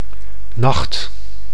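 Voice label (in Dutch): "nacht", Dutch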